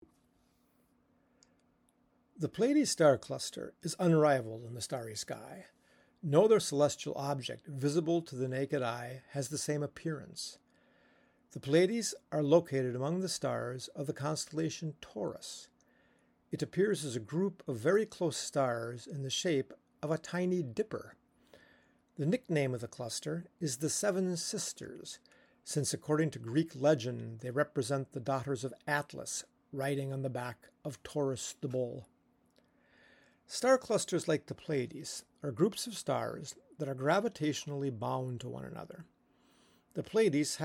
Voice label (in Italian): lingua inglese; Pleiadi